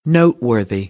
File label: "noteworthy", American English; ɝː - ɜː